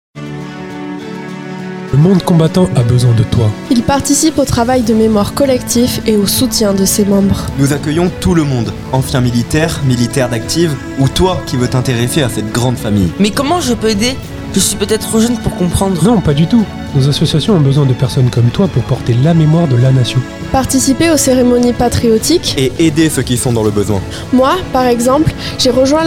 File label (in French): publicite